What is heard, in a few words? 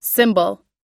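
"symbol", English pronunciation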